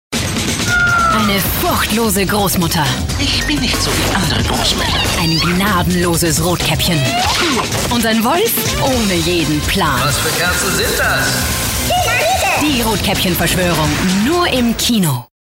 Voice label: de movie trailer, female; tysk

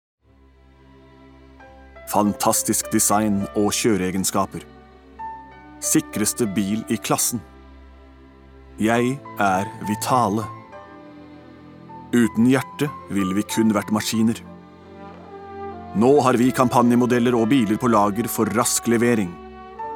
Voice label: no soft sell

